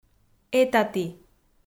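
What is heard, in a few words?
"этаті", Komi, female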